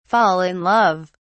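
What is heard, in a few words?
"fall in love", British English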